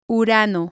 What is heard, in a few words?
"uranus", Spanish